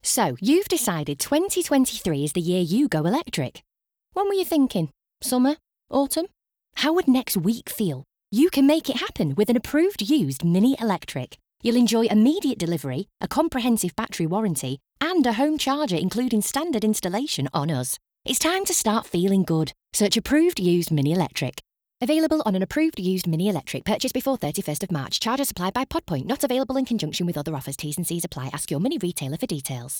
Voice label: RADIO; Mini